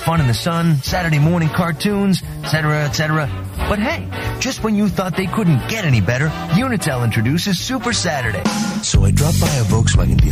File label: Commercial